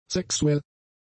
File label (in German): "sexuell", German; adjectives